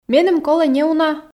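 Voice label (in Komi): меным колӧ неуна, Komi, female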